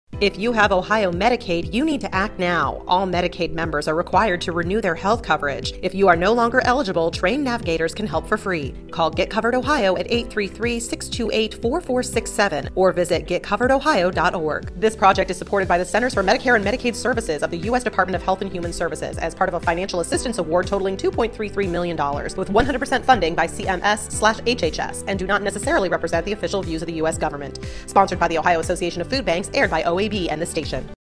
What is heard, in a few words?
English Radio; Get Covered